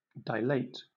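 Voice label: "dilate", British English; pronunciations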